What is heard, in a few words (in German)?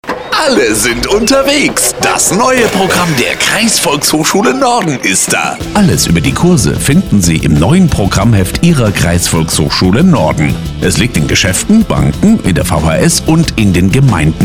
Hörfunkspot lokal